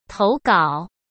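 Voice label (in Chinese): "投稿", Mandarin Chinese; chinese-flashcards; hsk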